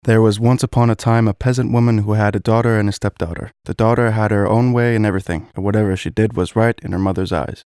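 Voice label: English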